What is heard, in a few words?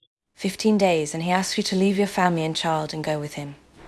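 Voice Acting Spanish Female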